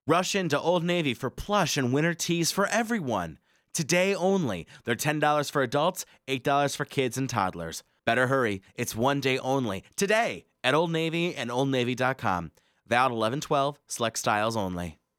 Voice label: voiceover raw